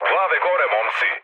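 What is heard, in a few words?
Serbian near waypoint mood low; War Thunder; speech srb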